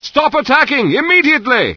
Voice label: scared; Source Engine; bshift; rosenberg